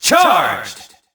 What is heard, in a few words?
"charged!", announcer; sonic; colors